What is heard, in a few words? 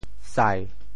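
"sai7", Teochew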